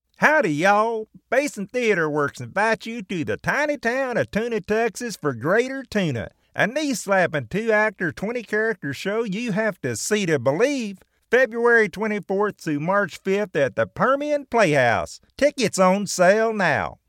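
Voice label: US English, Commercial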